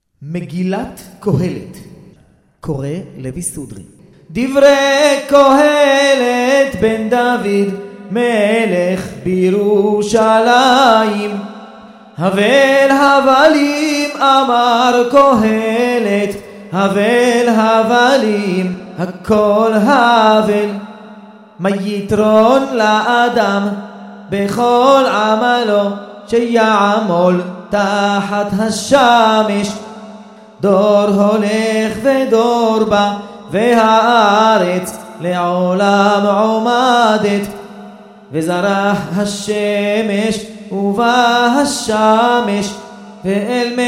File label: recorded tanach megilat kohelet sefardi moroccan